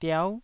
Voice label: "diau9", Taiwanese syllable